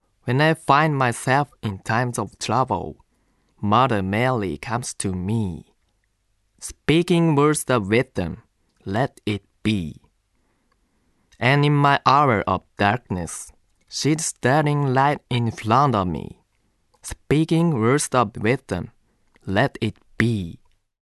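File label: Narration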